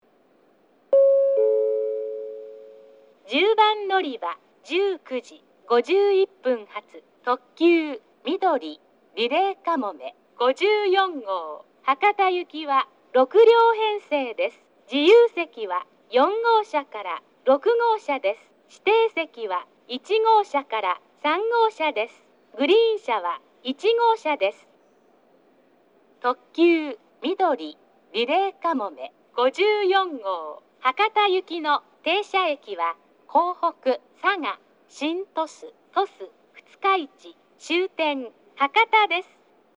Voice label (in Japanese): 10番のりば案内放送; みどり・リレーかもめ54号 博多行き; takeo onsen